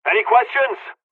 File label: dlg, hungarian; dialogs